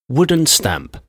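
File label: "wooden stamp", English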